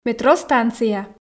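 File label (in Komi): "метро станция", Komi, female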